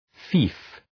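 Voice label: "fief", English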